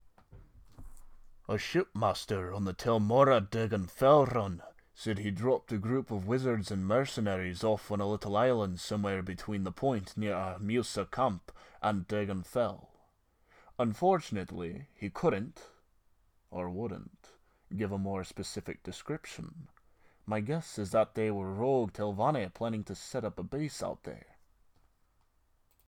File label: male nord, voice auditions